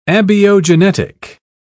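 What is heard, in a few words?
"abiogenetic", British English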